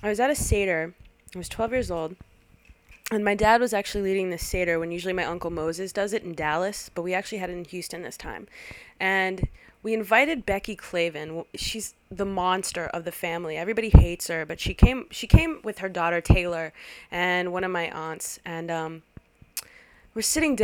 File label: narr